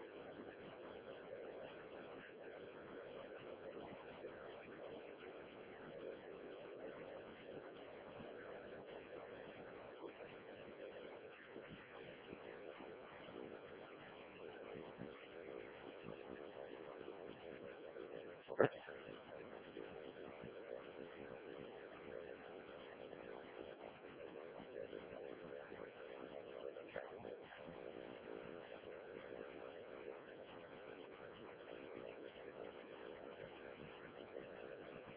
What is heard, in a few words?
decoded speech, parrot